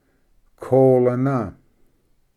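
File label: "kolana", Cherokee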